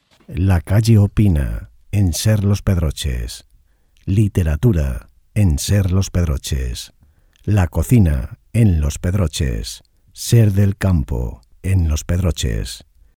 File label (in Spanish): promo programas; locutor de indicativos para programas